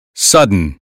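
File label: "sudden", American English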